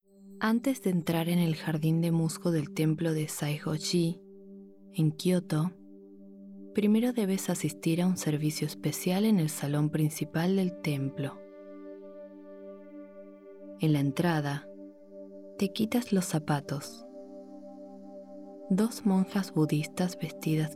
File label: female Argentinian tv comercial; ideasonora